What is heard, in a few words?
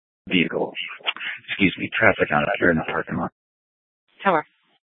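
Police Department North Dispatch, scanner; Regional Wireless Cooperative (RWC) - Simulcast H- Scottsdale; Scottsdale PD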